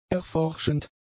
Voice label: "erforschend", German; adjectives